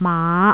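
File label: "maa5", Cantonese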